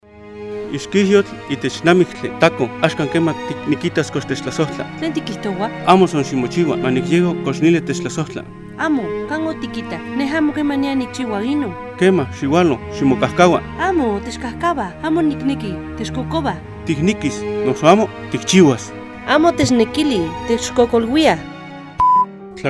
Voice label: Lengua Nahuatl Sierra de Zongolica